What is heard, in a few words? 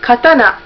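"katana", Japanese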